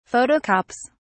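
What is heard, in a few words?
"photocopies", British English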